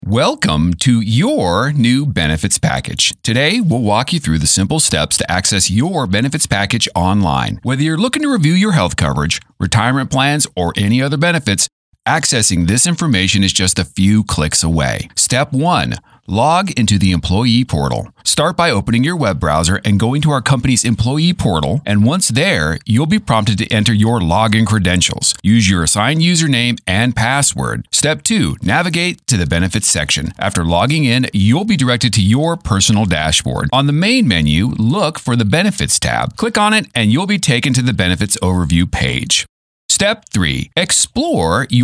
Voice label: Corporate narration